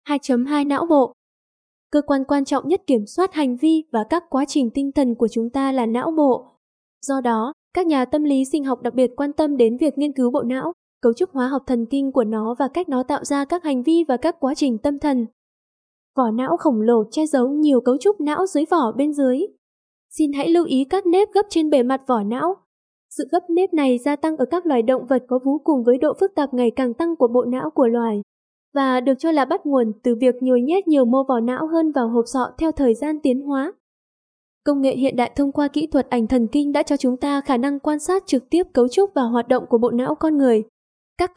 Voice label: Female